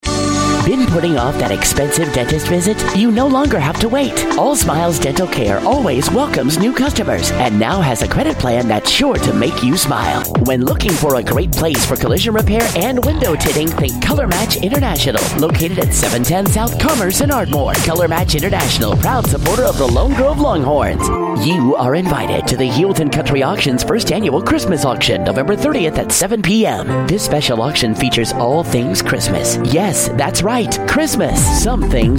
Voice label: commercial straight read